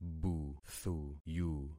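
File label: "buthuyu", concatenated syllables; Affixing experiment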